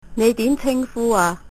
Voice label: name, cantonese